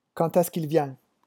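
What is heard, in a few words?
"quand est-ce", French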